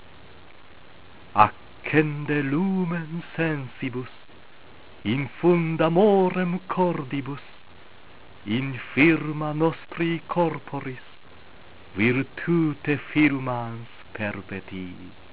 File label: Veni recitatio, latin